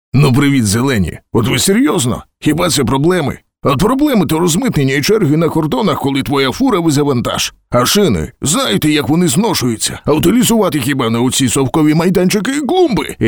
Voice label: ukrainian video games